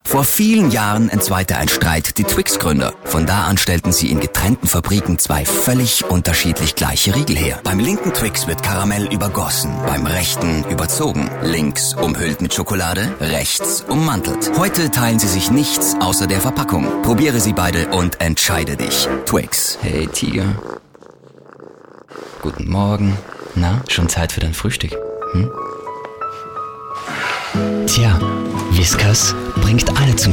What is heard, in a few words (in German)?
Werbung D